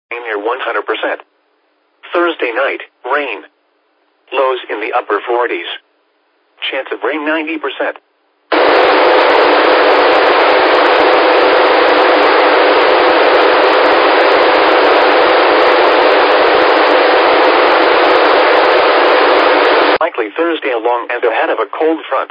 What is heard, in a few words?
qso rec repeater logic